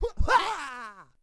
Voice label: Asian Ship Vox; Warlords; Units